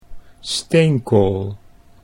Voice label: "steenkool", Dutch

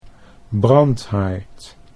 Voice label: "brandhaard", Dutch

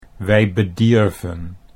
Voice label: "wij bedierven", Dutch

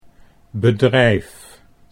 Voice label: "bedrijf", Dutch

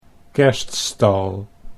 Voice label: "kerststal", Dutch

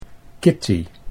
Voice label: "Kitty", Dutch

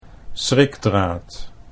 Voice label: "schrikdraad", Dutch

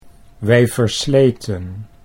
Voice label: wij versleten, Dutch

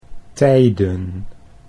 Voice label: "tijden", Dutch

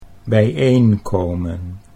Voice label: "bijeenkomen", Dutch

